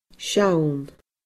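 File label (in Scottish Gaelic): "seann", Scottish Gaelic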